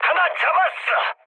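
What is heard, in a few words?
Korean player killed enemy mood high, speech; War Thunder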